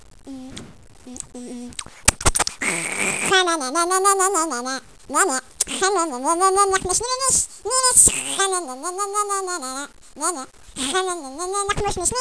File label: children chatter; valve